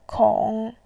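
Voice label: "kong3", Mandarin Chinese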